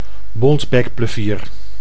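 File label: "bontbekplevier", Dutch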